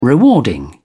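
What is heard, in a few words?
"rewarding", British English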